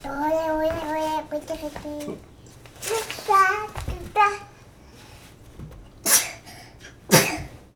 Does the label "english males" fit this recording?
No